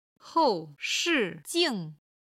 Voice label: "qian2 shi4 jing4", chinese

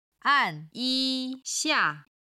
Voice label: "na4yi1xia4", Chinese

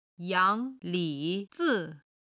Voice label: "yang2 li3 zi5", chinese

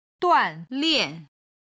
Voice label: "duan4lian4", Chinese

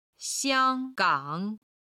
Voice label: "xiang1gang3", chinese

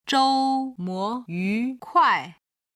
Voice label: "zhoumo yukuai", chinese

